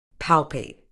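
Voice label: "palpate", medical English, British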